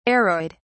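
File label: "aroid", British English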